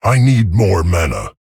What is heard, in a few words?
Male Tauren, ERROR NEED MANA